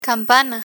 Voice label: "campana", Spanish; spanish vocabulary; learn spanish